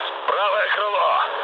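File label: right wing, mood low, Russian; War Thunder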